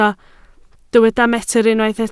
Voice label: "metr", Welsh

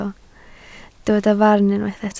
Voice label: "farn", Welsh

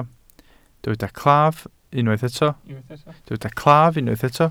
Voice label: "claf", welsh

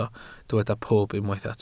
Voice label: "pob", Welsh

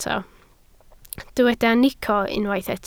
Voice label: "nico", Welsh

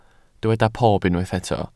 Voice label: "pob", Welsh

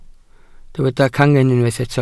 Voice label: "cangen", Welsh

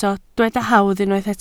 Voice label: "hawdd", Welsh

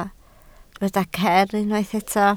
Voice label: "ger", Welsh

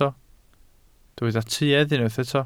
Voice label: "tuedd", Welsh

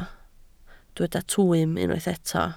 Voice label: "twym", Welsh

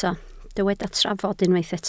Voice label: "trafod", Welsh

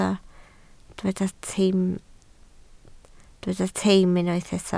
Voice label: "teim", welsh

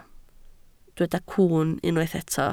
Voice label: "cwwn", Welsh

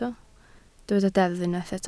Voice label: "deddf", Welsh

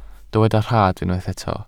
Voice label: "rhad", Welsh

